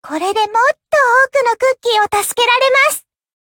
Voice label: levelup ja; cookie run

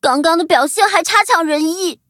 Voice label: defeat, zh-hant

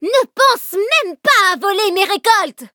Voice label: levelup, fr; cookie run

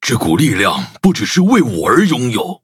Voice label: levelup zh-hant; cookie run

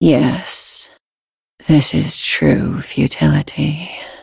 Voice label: defeat, en; cookie run

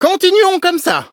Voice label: victory, fr; cookierun

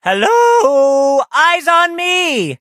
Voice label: battle en; cookie run

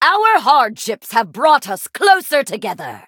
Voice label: upgrade, en; Cookie Run

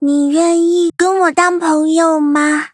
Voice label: loading, zh-hant; Cookie Run

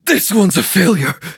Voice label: hit, en; Cookie Run

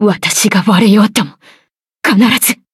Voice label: defeat, ja

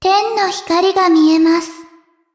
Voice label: levelup ja; cookierun

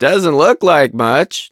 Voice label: battle en; cookie run

